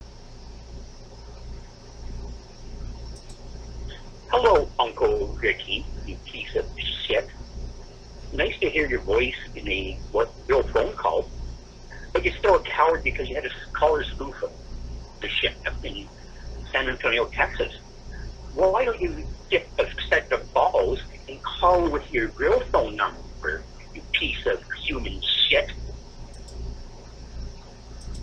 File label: harassing phone call